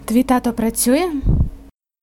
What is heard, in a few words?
dialogue, Ukrainian